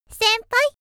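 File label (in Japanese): 少女「先輩」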